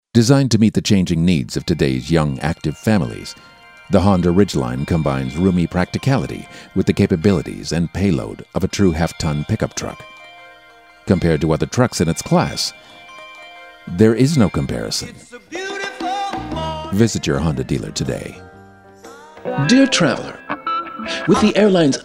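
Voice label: commercial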